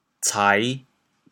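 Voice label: "cai5", Teochew, chaoyang; TeoChew Phonetic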